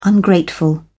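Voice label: "ungrateful", British English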